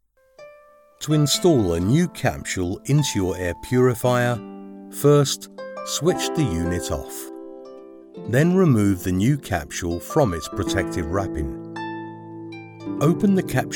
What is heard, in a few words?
english british e-learning